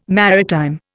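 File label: "maritime", Indian English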